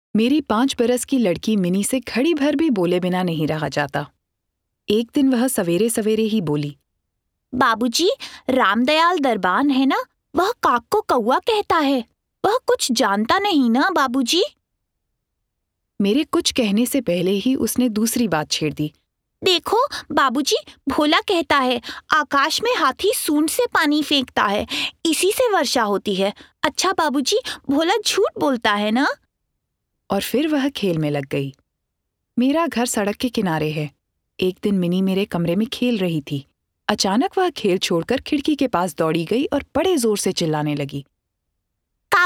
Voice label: Hindi Narrative

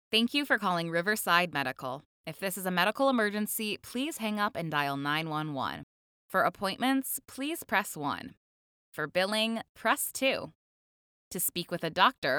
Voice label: Telephony, On hold message

